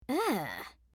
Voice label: Emote Negative

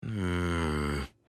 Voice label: Emote Negative

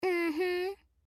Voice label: Emote Positive

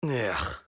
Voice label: Kai Emote Negative

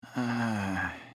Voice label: Rune Emote Negative

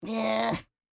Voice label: Dubu Emote Negative

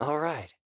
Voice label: Emote Positive; Zentaro

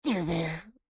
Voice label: Emote Positive; Dubu

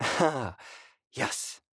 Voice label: Emote Positive; Zentaro

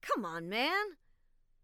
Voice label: Emote Negative

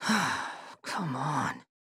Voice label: Emote Negative; Kazan

